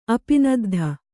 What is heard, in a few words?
"ಅಪಿನದ್ಧ", kannada